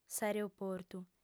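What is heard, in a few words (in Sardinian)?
"s'aereoportu", female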